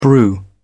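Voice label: "brew", British English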